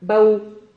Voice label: "bahu", Silabas

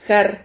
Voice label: "jar", Silabas

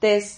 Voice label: "tes", Silabas

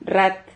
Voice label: "rrat", Silabas

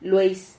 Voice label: "lueis", Silabas

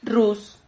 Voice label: "rrus", silabas

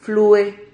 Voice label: "flue", Silabas

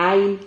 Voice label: "ain", Silabas

